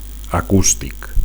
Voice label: "acustic", Catalan; acoustic

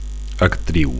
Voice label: "actriu", Catalan; actress

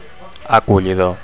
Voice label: "acollidor", Catalan; welcoming

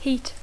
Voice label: "heat", English

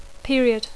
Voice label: "period", English

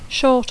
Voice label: "short", English

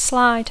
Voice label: "slide", English

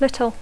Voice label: "little", English

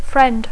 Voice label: "friend", English

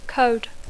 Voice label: "code", English; codi

